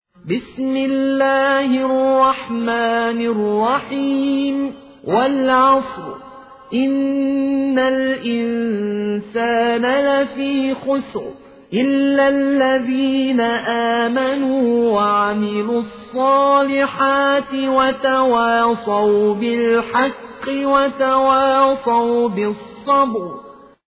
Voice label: سوره عصر حفص عن عاصم; الطرابلسی

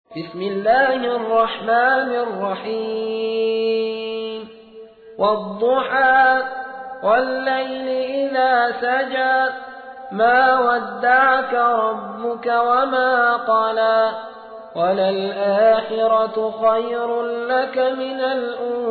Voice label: ترتیل; سوره ضحی

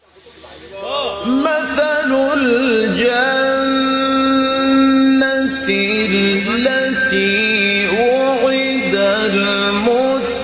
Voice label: تلاوت احساسی آیه 15 سوره محمد; محمود شحات انور

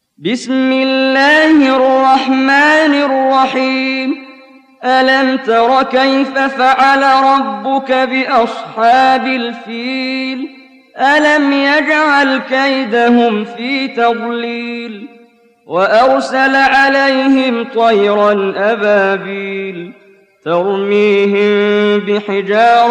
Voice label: ترتیل سوره فیل; استاد محمد جبریل

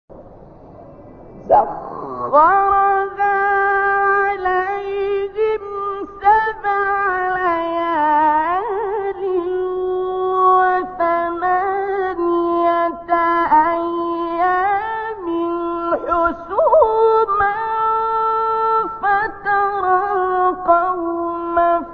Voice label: تلاوت زیبای آیات 7 8 سوره مباره حاقه; استاد عبدالباسط

